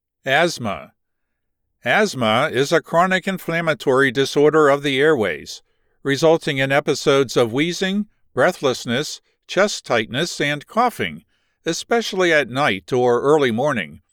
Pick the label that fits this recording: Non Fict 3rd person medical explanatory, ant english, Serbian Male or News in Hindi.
Non Fict 3rd person medical explanatory